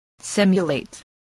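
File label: "simulate", spoken word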